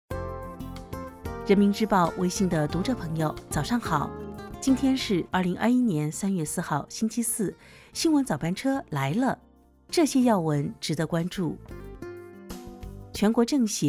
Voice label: 新闻; 人民日报

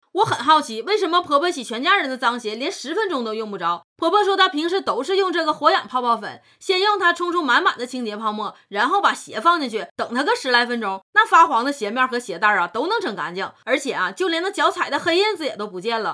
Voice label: 东北女卖货; 海宁

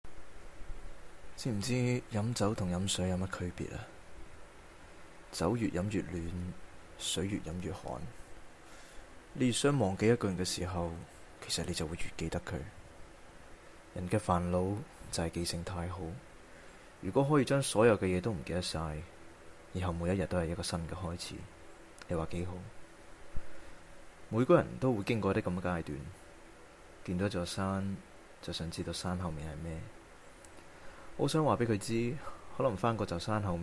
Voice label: 旁白; 东邪西毒

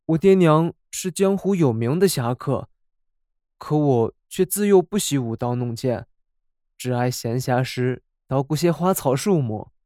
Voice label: 温柔书生

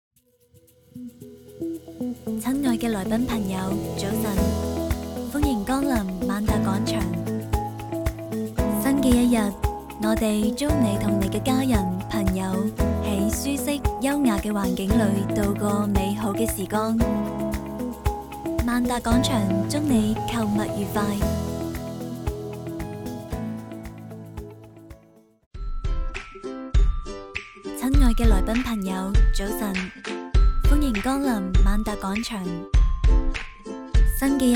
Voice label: 粤语样音商场迎宾播报; 粤语女声商场迎宾播报——《万达广场迎宾》

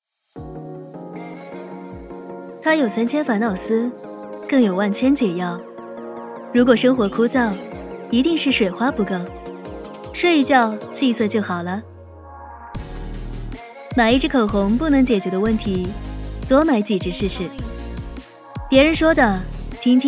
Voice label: 品质广告独立个性

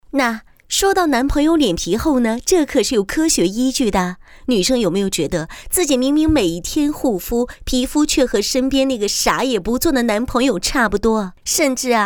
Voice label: 港风

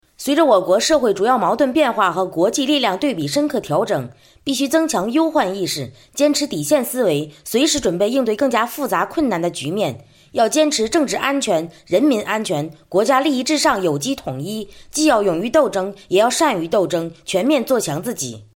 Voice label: 新闻稿

